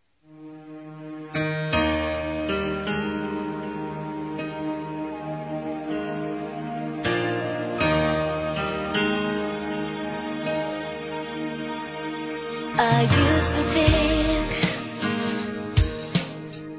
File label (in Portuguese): Aniversário Alguém Especial Feminino; Aniversários; Aniversários diversos